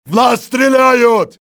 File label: under fire, russian soldier; XBOX